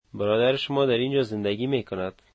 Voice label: your brother lives here, Dari